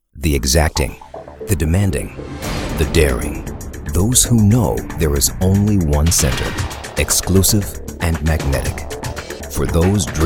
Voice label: english american corporate videos